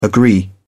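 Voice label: "agree", British English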